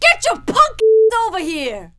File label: fight, female; kingpin; hiredgirl; orders